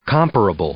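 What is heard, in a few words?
"comparable", English; adjective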